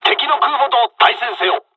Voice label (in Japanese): bombing, dialogs japanese; midway; War Thunder